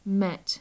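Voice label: "met", British English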